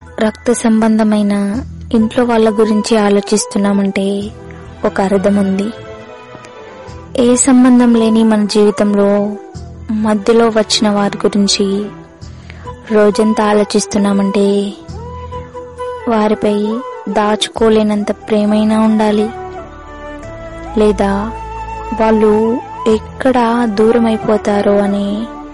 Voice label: Telugu Love Feeling Dialogues